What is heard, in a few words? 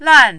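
"lan", Mandarin, fourth tone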